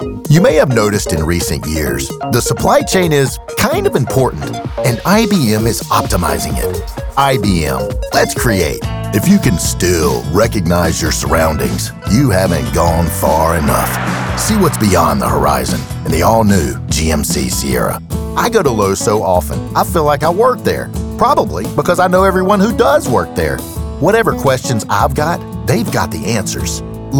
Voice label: Commercial